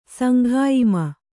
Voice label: "ಸಂಘಾಯಿಮ", Kannada

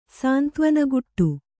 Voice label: "ಸಾಂತ್ವನಗುಟ್ಟು", kannada

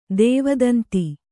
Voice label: "ದೇವದಂತಿ", Kannada

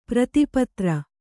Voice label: "ಪ್ರೀತಿಪಾತ್ರ", Kannada

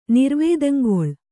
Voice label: "ನಿರ್ವೇದಂಗೊಳ್", Kannada

